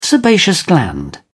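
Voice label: "sebaceous gland", British English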